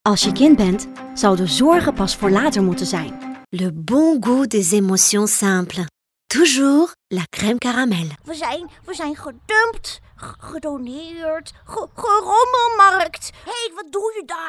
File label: Multilingual Master Demo